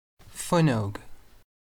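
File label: "fuinneog", Irish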